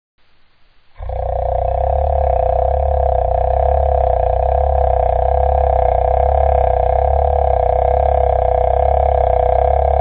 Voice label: vowels quarter speed, voice examples; vowel study